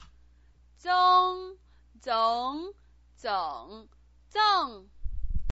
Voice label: "zeng", pinyin

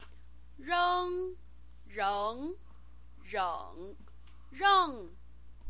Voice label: "reng", pinyin

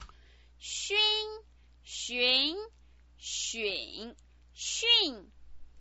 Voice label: "xun", pinyin

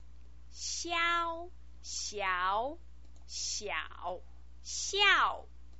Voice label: "xiao", pinyin

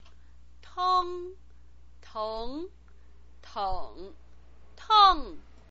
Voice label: "teng", pinyin

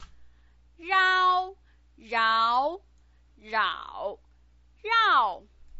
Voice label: "rao", pinyin

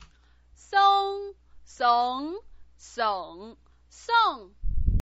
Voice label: "seng", pinyin

